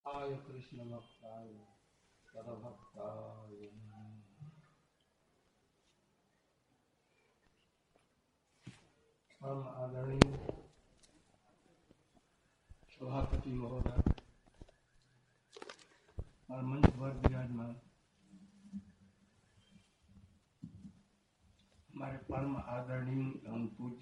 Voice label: Hindi public speech; VRNDAVAN